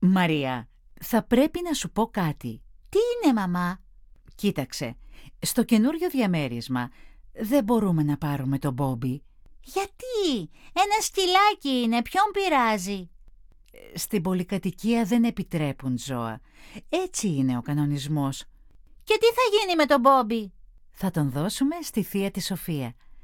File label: dialog